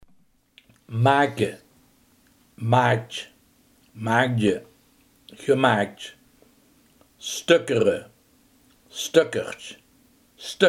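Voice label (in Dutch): herstellen, Limburgse gesproken woordenboeken; herstellen (2), make (maaktj, maakdje, gemaaktj), stökkere (stökkertj, stökkerdje, gestökkerdj); repareren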